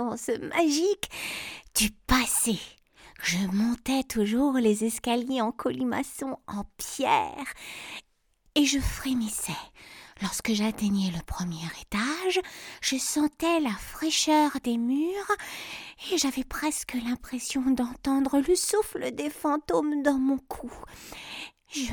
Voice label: voix château enfant

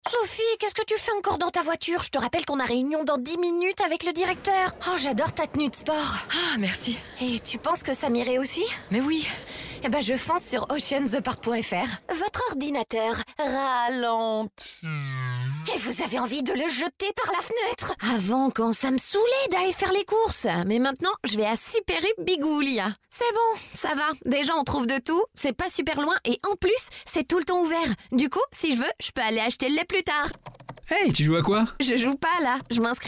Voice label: SPOT institutionnels, Comédienne, Voix off

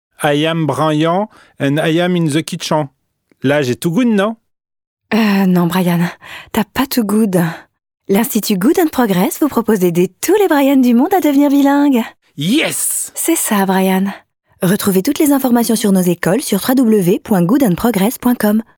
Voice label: VOIX OFF AUDIOBOOK; "Il faut beaucoup aimer les hommes"(M. Darrieussecq) extrait

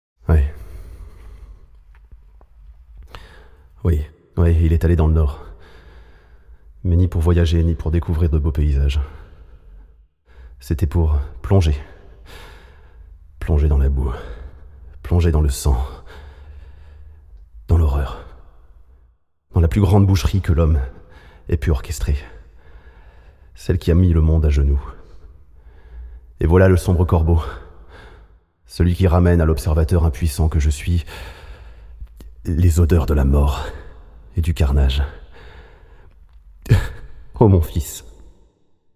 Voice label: Voix ENJOUÉE du Mécanicien aéronautique

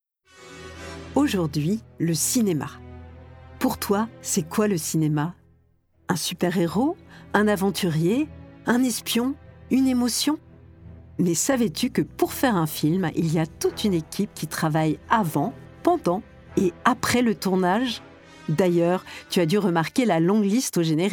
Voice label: audio description

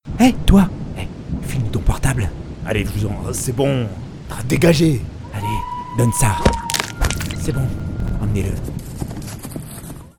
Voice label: Oruc voix grave animation

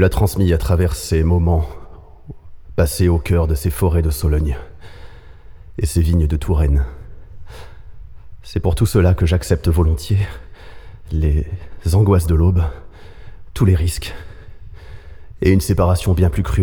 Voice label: Voix INQUIÈTE du père, écrivant une lettre à son fils soldat WWI

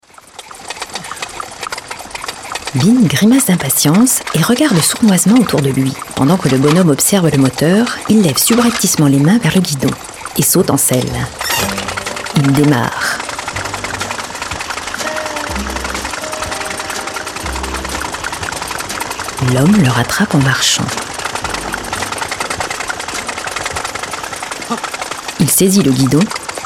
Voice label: AUDIODESCRITPION, Voix off; Les vacances de Mr Bean

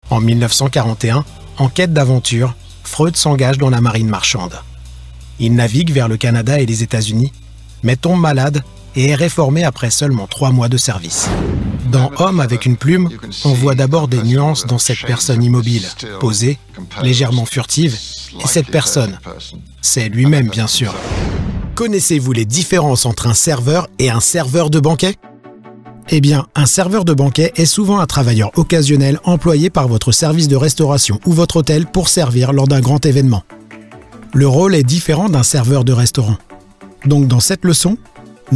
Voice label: Reel Narration

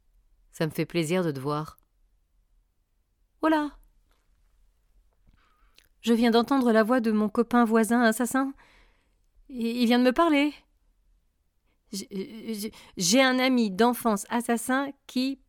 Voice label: livre audio; vous reprendrez bien un peu

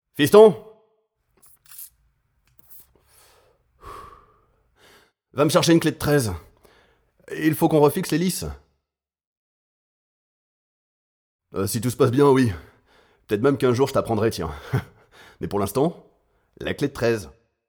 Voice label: Voix AVEC ACCENT PAYSAN d'un cultivateur (moyen-âge)

